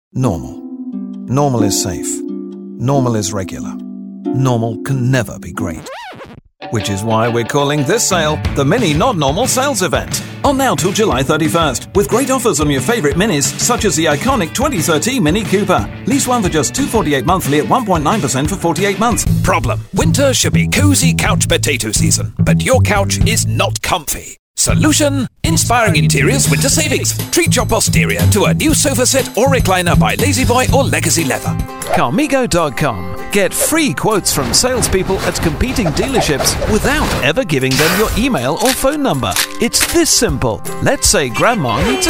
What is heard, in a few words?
Commercials